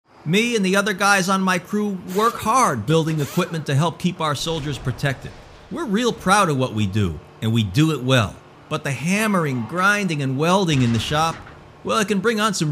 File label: wellness, broadcast